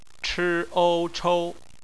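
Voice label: "chou", bopomofo, chinese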